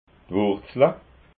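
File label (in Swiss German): "wurzla", Alsatian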